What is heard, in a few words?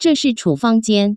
"chufang", Chinese, Taiwan; pharmacy yaoju zhengzhuang